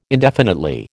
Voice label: "indefinitely", American

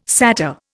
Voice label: "satyr", Indian

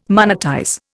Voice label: "monetize", Indian

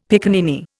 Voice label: "piccaninny", Indian; Dictionary

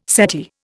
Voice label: "settee", Indian

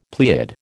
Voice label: "pleiad", American

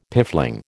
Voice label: "piffling", American

